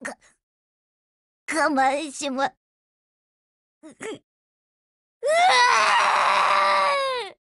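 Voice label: BREAK Japanese, Voice; GF Alarm; BrowningHP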